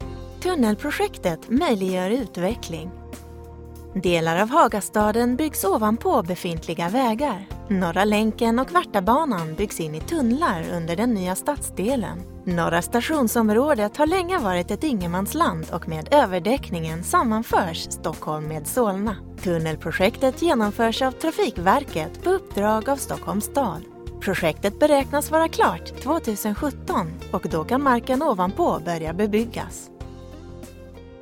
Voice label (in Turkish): Isvecce Kadin; seslendirme